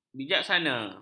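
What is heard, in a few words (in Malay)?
"bijaksana", Malay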